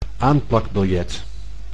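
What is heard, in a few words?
"aanplakbiljet", Dutch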